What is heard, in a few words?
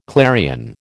"clarion", American